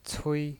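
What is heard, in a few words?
"cui", Mandarin Chinese pinyin voice, first tone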